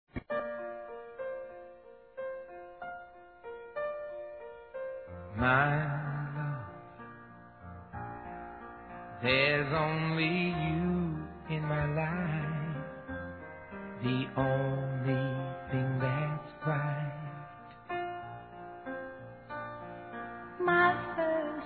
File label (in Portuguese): DESCULPAS F, Telemensagens; fem; Telemensagens 2017